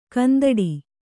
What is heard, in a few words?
"ಕಂದೊಡಿ", Kannada